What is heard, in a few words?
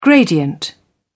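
"gradient", English, British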